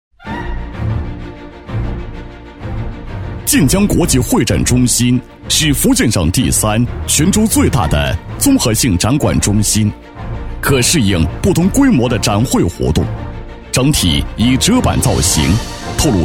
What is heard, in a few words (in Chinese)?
男 大气稳重, 厚重大气 大气浑厚; 晋江国际会展中心